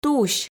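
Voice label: "тусь", Komi, female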